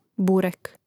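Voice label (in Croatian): "burek", Hrvatski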